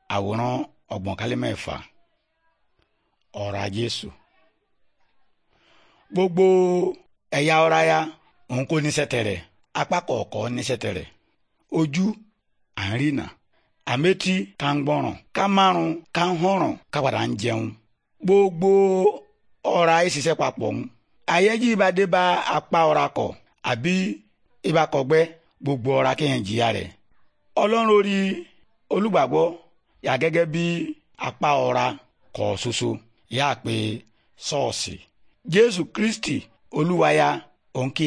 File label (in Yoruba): good news yoruba, bible